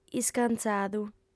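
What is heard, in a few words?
"iscantzadu", female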